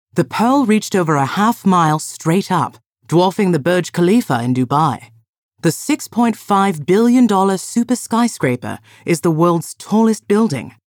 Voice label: news anchor documentary educational reporter announcer intelligent storyteller